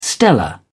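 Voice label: "stellar", British English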